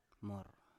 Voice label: "mor", Biak